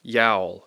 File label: "yowl", English; Speech Pro